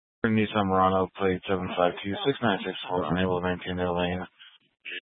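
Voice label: Incident Tac 3, Scanner audio recording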